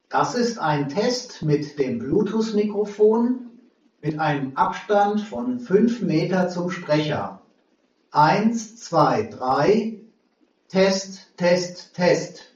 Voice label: Abstand 5m Sprecher, mikrofon test sprachqualitaet; 5 Meter Abstand zum Sprecher

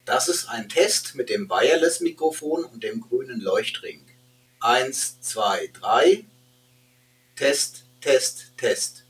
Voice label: wireless mic 2m, wireless One/Duo per Funk auf 2m getestet

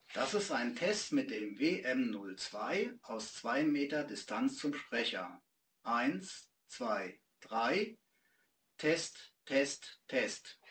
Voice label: 2m distanz, wireless Konferenz Mikrofon, 2 Meter Abstand zum Sprecher; COMREON® HG-Tec WM02 wireless Konferenz Mikrofon mit Funk Verbindung zum Rechner getestet

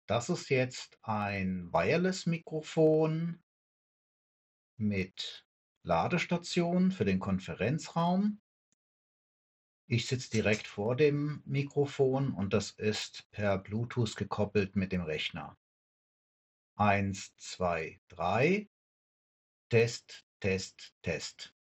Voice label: Yealink CP935W wireless Konferenz Mikrofon, 1 Meter Abstand zum Sprecher; Yealink CP935W wireless Konferenz Mikrofon mit Bluetooth Verbindung zum Rechner getestet

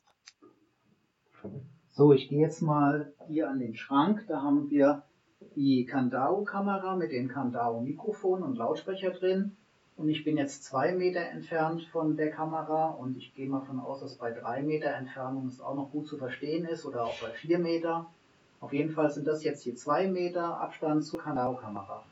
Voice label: Mikrofon Abstand 2m, mikrofon test sprachqualitaet; Kandao Meeting Pro All in One System Mikrofon Test; 2 Meter Abstand zum Sprecher

